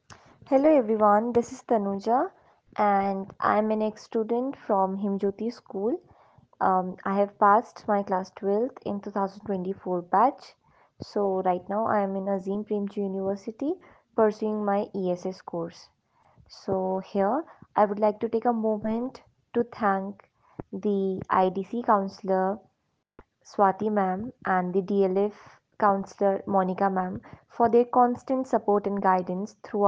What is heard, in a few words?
feedback, Student Voices; Guided Career Growth